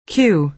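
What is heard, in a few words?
"cue", American English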